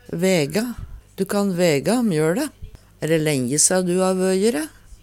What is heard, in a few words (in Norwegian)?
vaega, Numedalsmål; Du kan væga mjøLe. Æ dæ lenje sea du ha vøje`re?; Verb